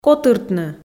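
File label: "котыртны", Komi, female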